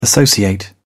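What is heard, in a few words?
"associate", British English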